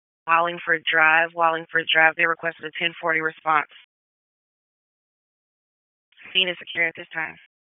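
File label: Police Northeast District Dispatch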